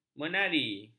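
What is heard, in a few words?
"menari", Malay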